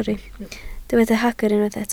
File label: "hagr", Welsh